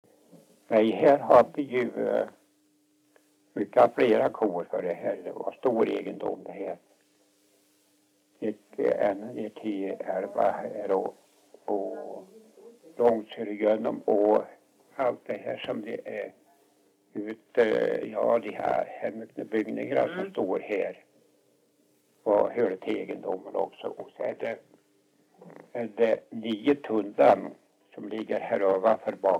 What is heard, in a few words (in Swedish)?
dialekter; Folkminnen; Svenska sagor